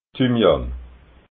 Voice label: "thymian", alsace

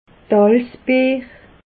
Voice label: "alsbeer", alsace

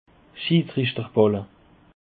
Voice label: "schidrichterballe", alsace

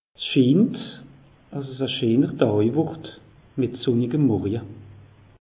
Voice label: "schiint", alsace; meteo

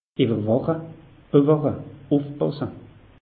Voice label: "iwwerwache", alsace; meteo

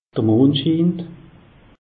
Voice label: "mond schiin", alsace; meteo

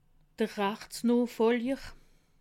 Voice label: "rachtsnoochfoljer", alsace

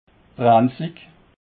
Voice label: "brennslig", alsace

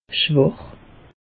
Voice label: "schwach", alsace; meteo

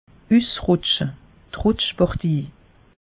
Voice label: "usrutsche", alsace; meteo

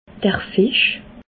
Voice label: "fisch", alsace

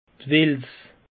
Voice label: "wels", alsace; peche

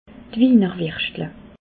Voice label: "wienerwirschtle", alsace

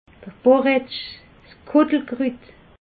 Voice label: "boretsch", alsace

